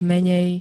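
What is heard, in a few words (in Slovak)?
"menej", Slovak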